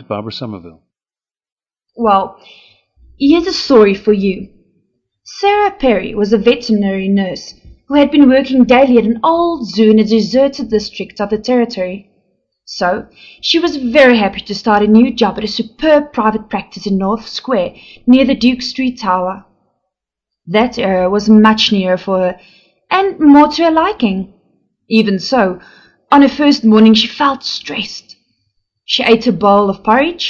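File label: south africa, dialects of English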